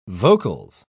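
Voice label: "vocals", British English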